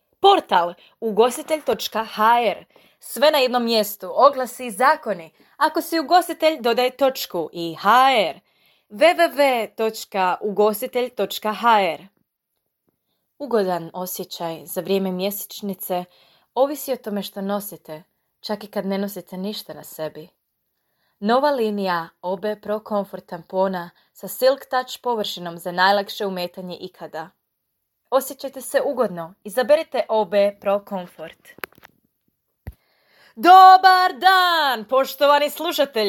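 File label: Croatian, General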